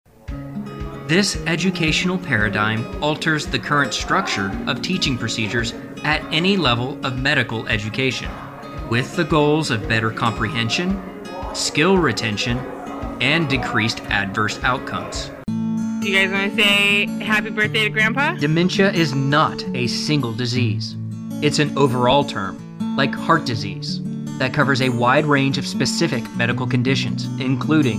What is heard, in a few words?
narration